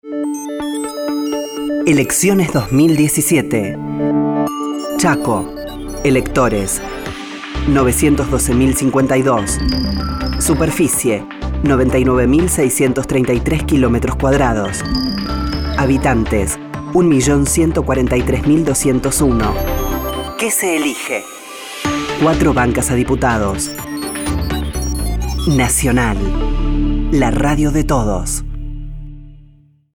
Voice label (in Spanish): Spot Informativo; Chaco